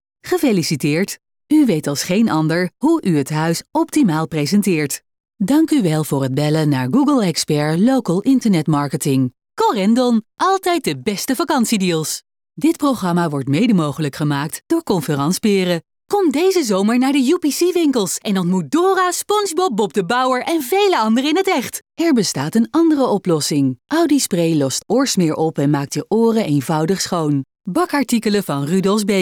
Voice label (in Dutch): algemeen, voice-over